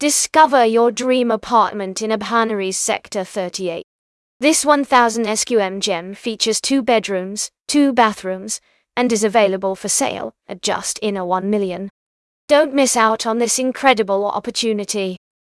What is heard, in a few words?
narration audio